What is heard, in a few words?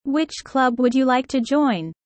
low; studying abroad